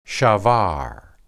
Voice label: "shabar", Hebrew

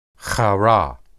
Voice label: "harah", Hebrew

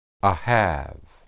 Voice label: "ahab", Hebrew

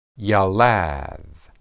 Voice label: "yalad", Hebrew

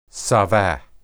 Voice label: "saba", Hebrew

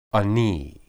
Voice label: "ani", Hebrew

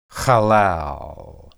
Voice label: "halal", Hebrew

